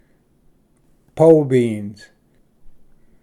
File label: "ᏚᏯ ᎠᏂᎴᎩ", Pronunciation; pole-beans